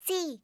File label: "c", alphabet